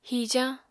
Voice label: "hijañ", Apatani